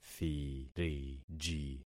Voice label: "thiriji", concat syllables; Affixing experiment